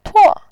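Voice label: "tuo", Mandarin Chinese, tone 4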